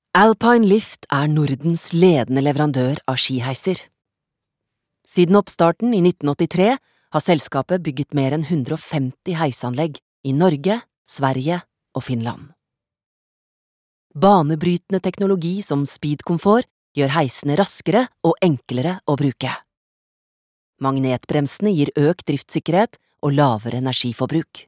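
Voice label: Norwegian corporate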